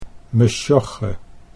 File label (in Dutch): "mesjogge", Dutch